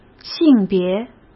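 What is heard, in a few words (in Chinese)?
"性别" Mandarin Chinese; chinese-flashcards; hsk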